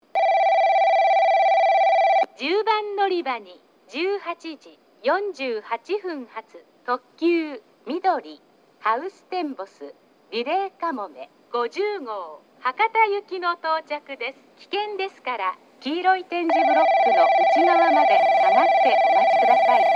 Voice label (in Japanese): 10番のりば接近放送; みどり・ハウステンボス・リレーかもめ50号 博多行き; takeo onsen